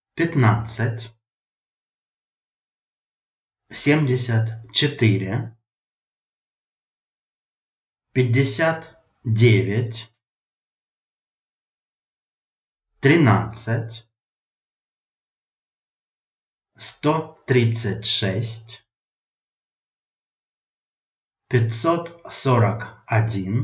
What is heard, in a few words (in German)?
russisch; Sprachkalender Russisch 2023 März 06